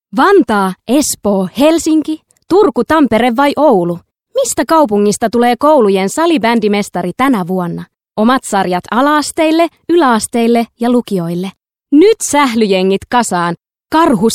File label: finnish f character; voice talents